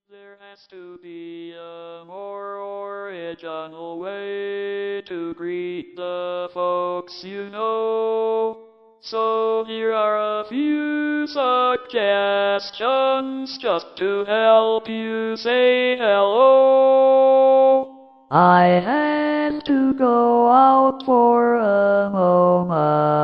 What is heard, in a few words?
ANSWERING MACHINE OUTGOING MESSAGES